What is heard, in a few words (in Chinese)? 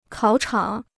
"考场", Chinese; 考场 (kǎo chǎng); exam room